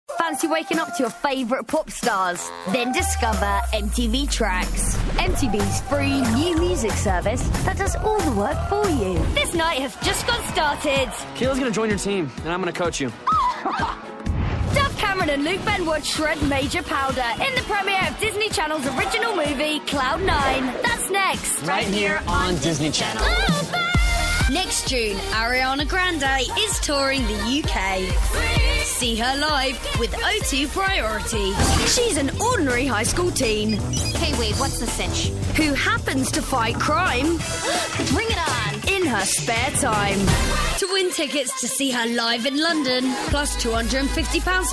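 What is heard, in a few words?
english british female commercial; voice demos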